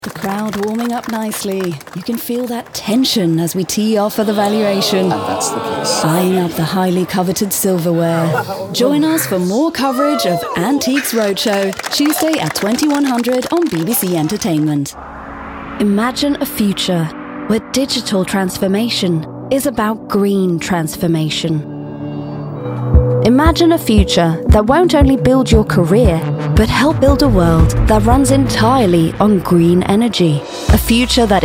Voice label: English Voice